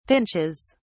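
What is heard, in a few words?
"pinches", British English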